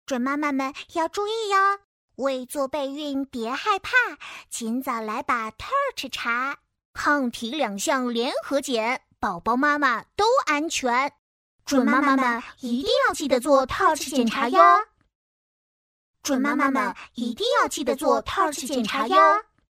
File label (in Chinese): 女童